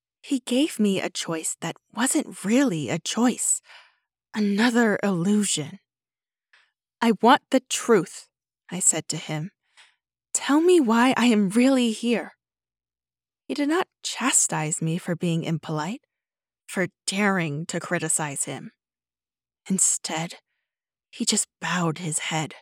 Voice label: Fantasy 1st Person MF Dialogue, narration; audiobook samples